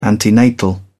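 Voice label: "antenatal", British English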